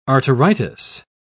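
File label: "arteritis", English, British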